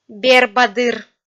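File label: "бербадыр", Komi, female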